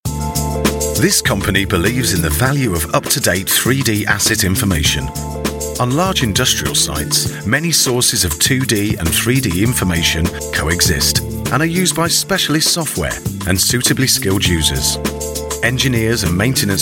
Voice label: corporate